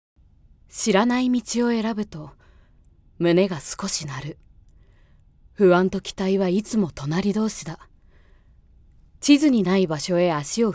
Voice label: 朗読ナレーション

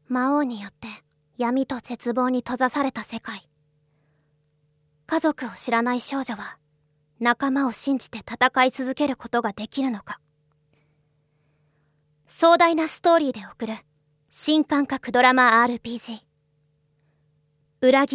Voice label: キャラクターナレーション

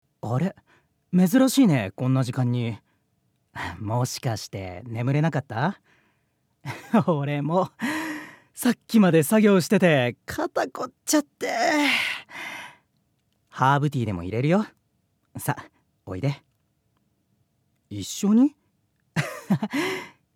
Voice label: ナチュラル

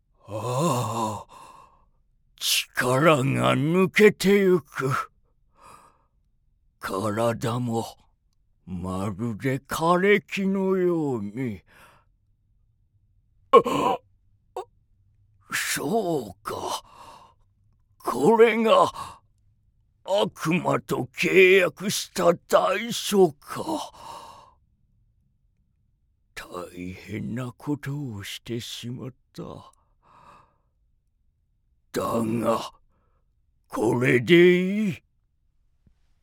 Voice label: 落ち着いた旅番組

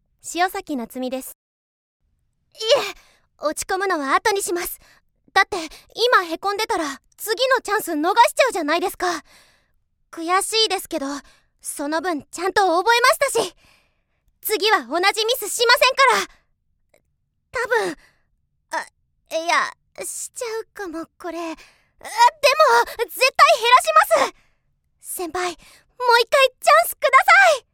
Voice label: 元気な新人

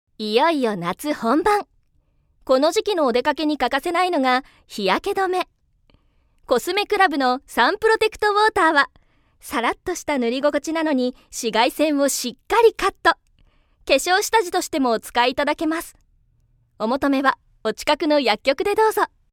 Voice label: 化粧品CM(女性 明るい)

